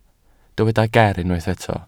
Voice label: "ger" Welsh

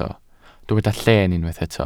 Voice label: "lleen" Welsh

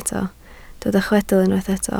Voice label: "chwedl" Welsh

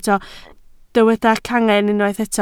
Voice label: "cangen", Welsh

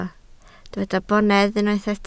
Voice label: "bonedd", Welsh

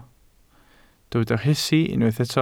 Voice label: "rhesi", Welsh

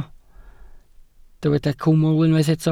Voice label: "cwmwl" Welsh

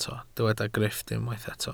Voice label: "grifft", Welsh

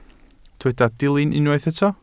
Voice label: "dilyn" Welsh

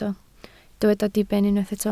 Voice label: "diben" Welsh

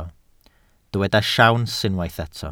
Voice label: "siawns", Welsh

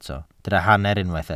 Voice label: "hanner", Welsh

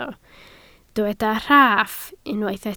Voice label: "rhaff" Welsh

